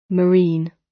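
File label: "marine", British; Flora and fauna, agriculture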